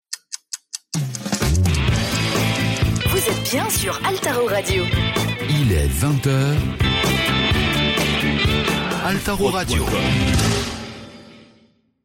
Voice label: Radio Top H Base, habillage; Altaro

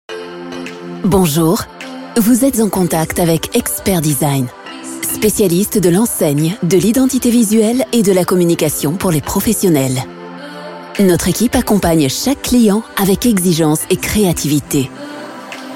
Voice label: Repondeur; Xpert Design

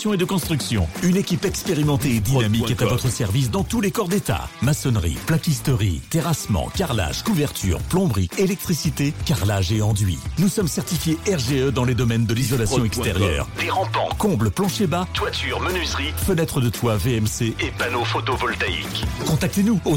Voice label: Radio Spot habitat; Sologne Radio; Guerra habitat

